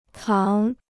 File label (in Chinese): "扛", Chinese